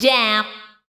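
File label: vocal female, Dance Vocals, spoken words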